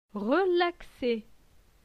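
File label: "Relaxe", French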